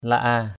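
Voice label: "laa", Eastern Cham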